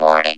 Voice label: Warning Robot